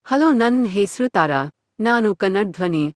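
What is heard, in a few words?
Kannada, India; iOS Sounds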